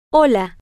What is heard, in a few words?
hello, spanish, F